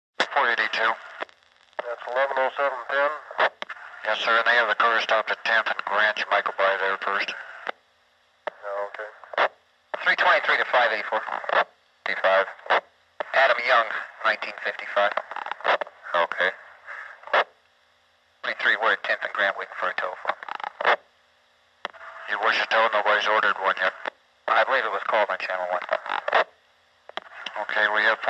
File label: Police Radio Communnications